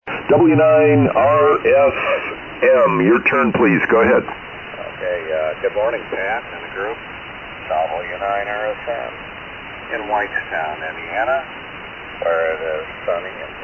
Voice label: Kenwood TS-520S Astatic 10-DA Mic & Palstar Amp; Whitestown, IN.

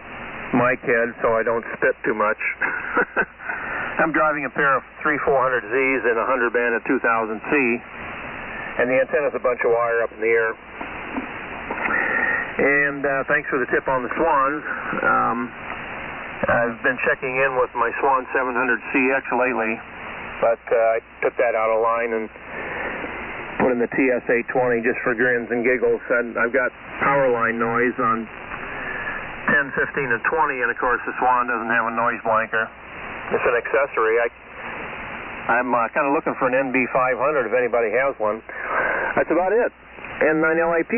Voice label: Kenwood TS-820 MC-50 Mic & Hunter Bandit 2000C; Moline, IL.

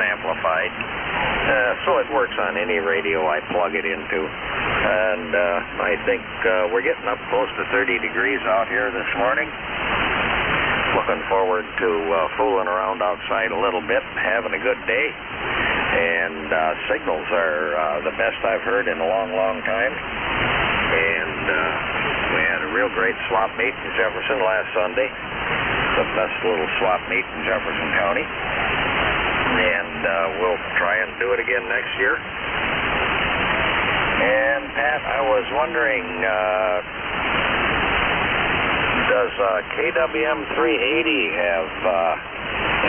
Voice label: Hallicrafters SR-160 Turner Plus 2 Mic; Fort Atkinson, Wi.

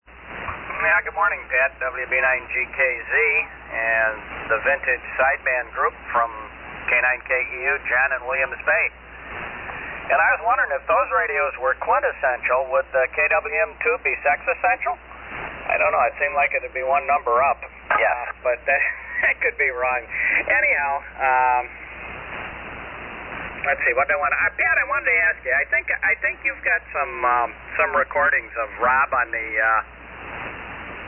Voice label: Collins KWM-2 & Heathkit SB-201; Williams Bay,Wi.

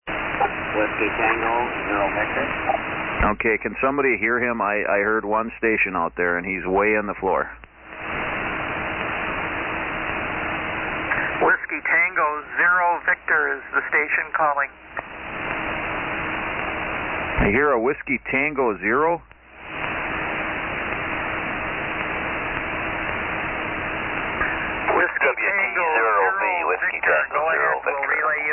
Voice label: Kenwood TS-830S & MC-50 Mic; Epwoth, IA.

